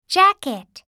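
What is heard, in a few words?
"jacket", English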